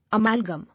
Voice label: "Amalgam", English